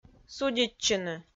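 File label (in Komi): "судитчыны", Komi, female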